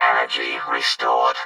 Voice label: Vocoder Energy Restored; Pickups